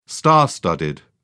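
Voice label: "star studded", British English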